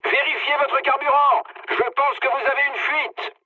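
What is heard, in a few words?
French tank damaged mood low; War Thunder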